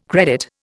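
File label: "credit", Indian English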